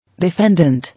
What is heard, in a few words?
"Defendant", English